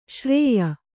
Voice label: "ಶ್ರಾಯ", Kannada

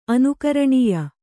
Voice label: "ಅನುಕರಣೀಯ", kannada

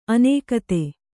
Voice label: "ಅನುಗತಿ", Kannada

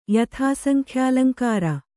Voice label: "ಯಥಾಸಂಖ್ಯಾಲಂಕಾರ", Kannada

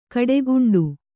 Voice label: "ಗಿಡಗಂಟೆ", Kannada

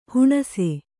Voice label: "ಹಣ್ಣಿಸು", Kannada

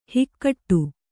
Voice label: "ಹಾಗಡ", Kannada

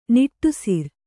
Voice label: "ನಿಟ್ಟುಸಿರು", Kannada

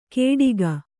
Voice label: "ಕೇಡಿಗ", Kannada

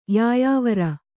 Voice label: "ಯಾಯಾವರ", Kannada

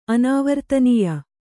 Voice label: "ಅನಾವರ್ತನೀಯ", Kannada; anāvartanīya

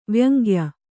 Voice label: "ವ್ಯಂಗ್ಯ", Kannada

